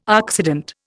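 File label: "oxidant", Indian English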